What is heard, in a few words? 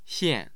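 "xian4", Mandarin Chinese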